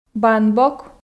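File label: "бан бок", Komi, female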